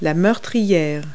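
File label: "loophole", English word; Multi-Lingual Talking Picture Dictionary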